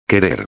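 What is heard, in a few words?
"querer", Spanish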